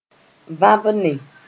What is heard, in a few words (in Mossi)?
"vãbne", moore